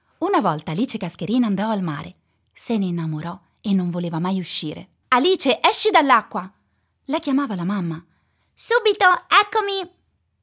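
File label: Italian Kids Narration; Alice Cascherina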